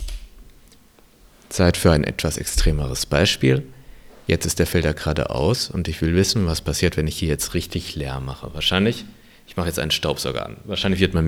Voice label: test unfiltered with extreme noise; rnnoise